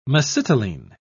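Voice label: "mesitylene", British English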